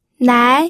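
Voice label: "nai", second tone, pinyin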